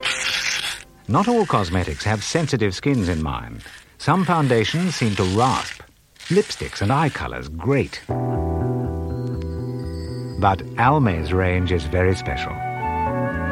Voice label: Radio Advert; Radio Hallam; Almay